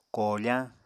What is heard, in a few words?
"koofañ", Apatani